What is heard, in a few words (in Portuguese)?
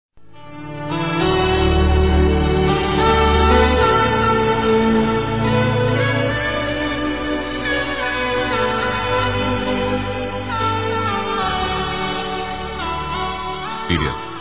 perdoa minha filha m; Desculpas; Telemensagens 2017